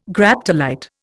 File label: "Graptolite", Indian